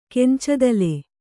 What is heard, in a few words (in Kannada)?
"ಕೆಂಚದಲೆ", kannada